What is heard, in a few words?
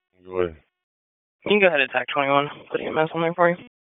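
Fire Department HQ Dispatch; RFD HQ DISP; wake p25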